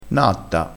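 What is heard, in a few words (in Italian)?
"natta", dialettale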